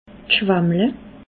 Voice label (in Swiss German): "schwammle", alsace